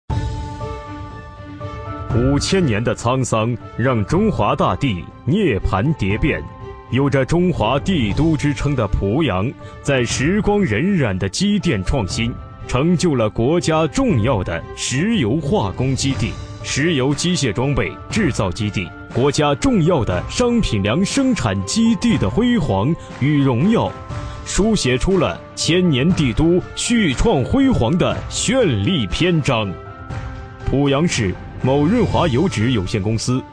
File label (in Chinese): 男66号宣传片 大气厚重